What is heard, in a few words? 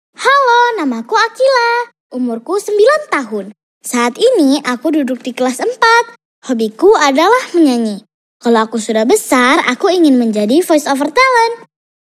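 child, introduction; INDONESIA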